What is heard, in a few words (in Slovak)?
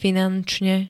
"finančne", Slovenské